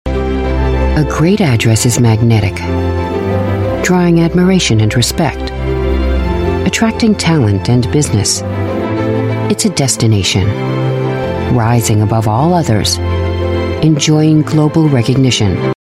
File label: US English Corporate; One World Center